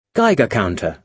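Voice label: "geiger counter", British English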